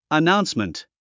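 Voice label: "announcement", English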